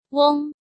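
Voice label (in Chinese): "Weng", Chinese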